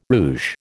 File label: "rouge", American